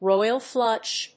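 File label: "royal flush", English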